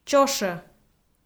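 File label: "чошӧ", Komi, female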